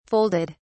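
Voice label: "folded", British English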